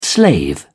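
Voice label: "slave", British English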